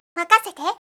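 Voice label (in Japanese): "makasete", Japanese; 101 henji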